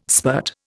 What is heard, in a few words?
"spirt", Indian